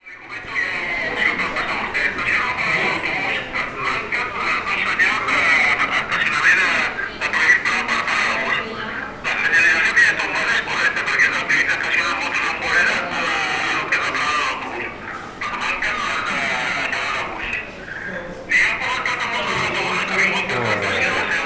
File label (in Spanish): Walkies Guardia Urbana